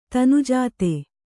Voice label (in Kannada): "ತನುಜಾತೆ", Kannada